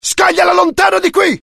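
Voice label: fear grenade, IT; mohaa; dialogue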